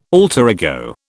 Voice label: "alter ego", British

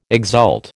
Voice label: "exult", American

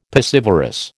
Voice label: "piscivorous", American

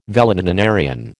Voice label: "valetudinarian", American

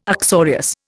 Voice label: "uxorious", Indian

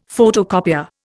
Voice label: "photocopier", Indian; Dictionary

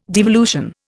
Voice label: "devolution", Indian

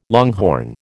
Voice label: "longhorn", American

